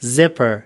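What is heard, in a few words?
"zipper", American English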